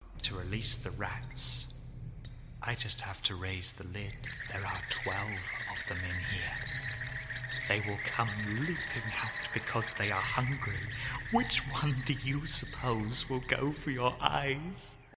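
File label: British English, Audio Drama; GoLocalise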